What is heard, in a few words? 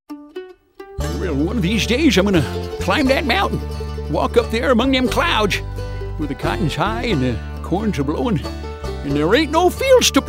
humorous